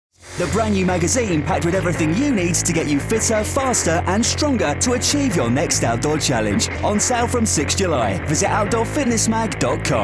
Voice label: essex estuary london reads